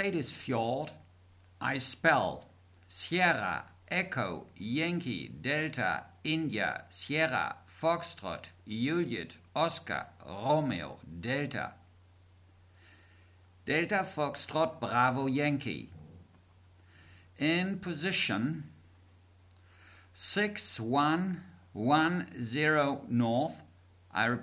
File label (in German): Seefunktext